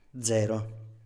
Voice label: "zero", Italienisch; dz; Null; Standard-Italienisch

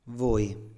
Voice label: "voi", Italienisch; ihr; Standard-Italienisch

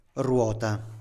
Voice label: "ruota", Italienisch; Rad; Standard-Italienisch

